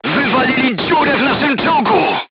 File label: voice message player tank hit, polish